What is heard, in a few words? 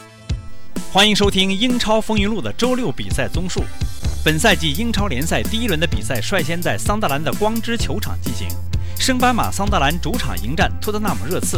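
Chinese, General; GoLocalise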